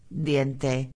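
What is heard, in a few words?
"diente", Spanish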